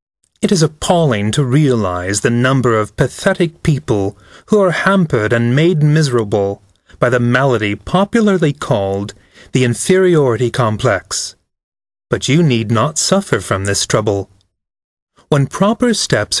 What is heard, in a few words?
voice over self help